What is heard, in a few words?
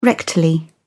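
"rectal", British English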